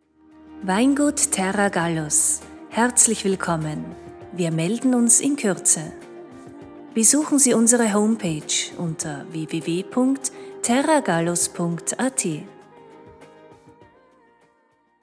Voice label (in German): Telefonansage Weingut Warteschleife